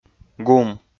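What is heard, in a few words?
"гум", Komi-Zyrian, male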